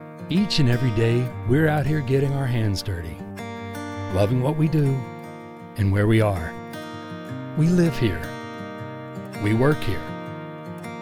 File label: TV SPOT; TRACTOR SUPPLY